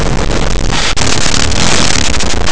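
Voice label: "zambomba", Spanish; laboratori fonetica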